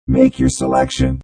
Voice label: Robot Voice "Make Your Selection"; Robot Sound Effects

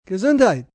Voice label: Bless You, male, German; Etiquette, male, foreign, German

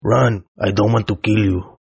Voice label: Toughguy Run English, Tough Hispanic Male; Talking Sound Effects

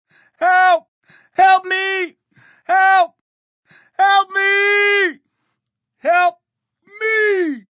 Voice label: Help Help Me Long, Male, crying

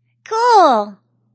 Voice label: Girl Says Cool; Talking

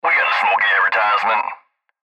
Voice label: Cb Trucker Radio; Radio Voice Sound Effects; Blastwave

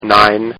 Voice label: Man Says, Talking; Partners In Rhyme

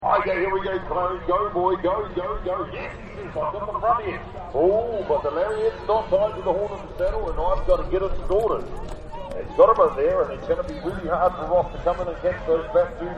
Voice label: Rodeo Announcer; Rodeo Announcer 8; Rodeo Sound Effects

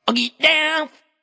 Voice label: Vocal, Funk vocal loop sample.; Music Production Loops

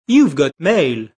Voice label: You ve Got Mail, Internet, Male; Telephone Voice

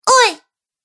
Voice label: Cartoon Girl Oi; Cartoon Voice Sound Effects

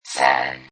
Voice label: Robot Voice "0"

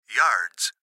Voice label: Gps Voice, Male

